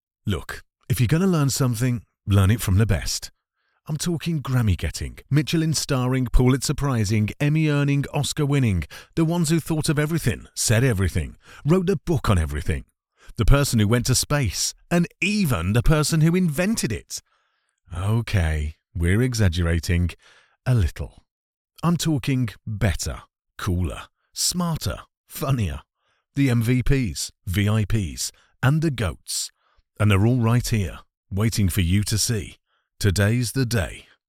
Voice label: english british promos

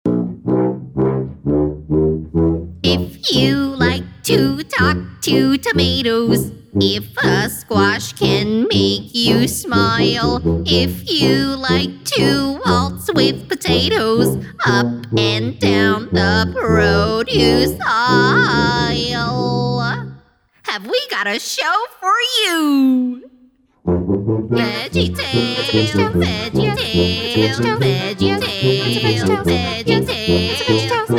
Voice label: english american impersonations

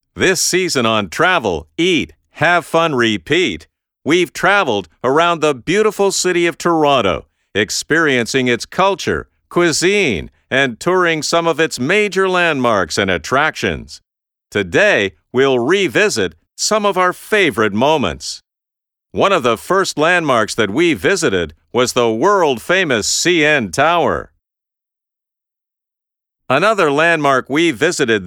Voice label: english canadian tv shows

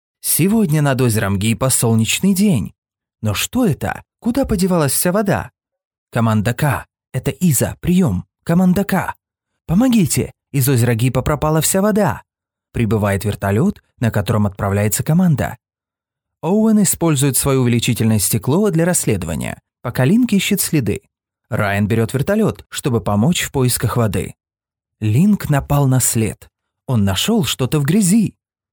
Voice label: russian audiobooks

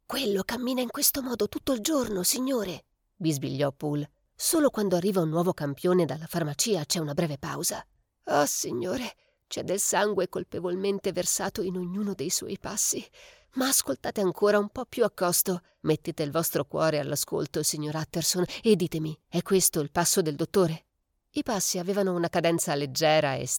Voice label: italian audiobooks

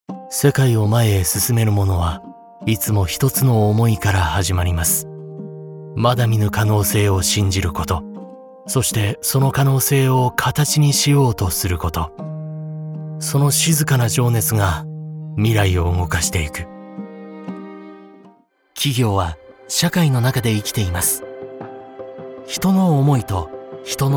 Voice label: japanese corporate videos

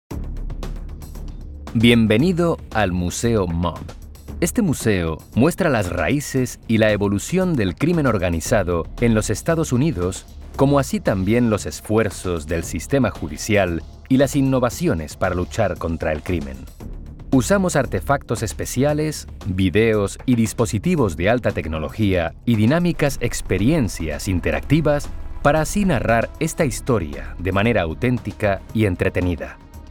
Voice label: spanish latin american audio guides

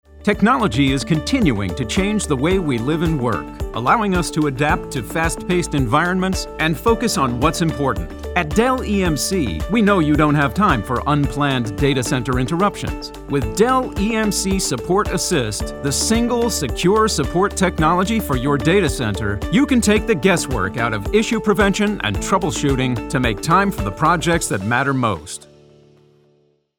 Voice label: english american corporate

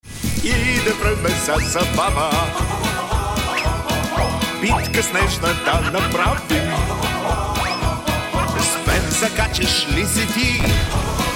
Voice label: bulgarian

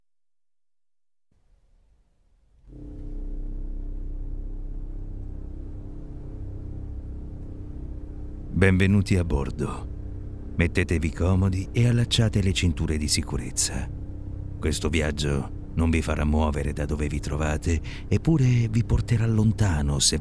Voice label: italian promos

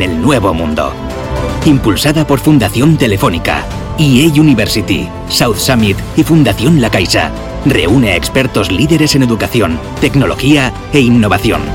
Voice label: spanish podcasts

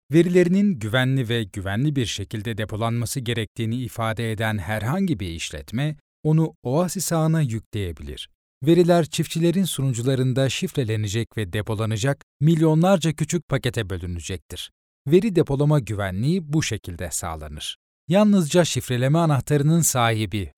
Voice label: turkish narration

